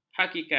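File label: "hakikat", Malay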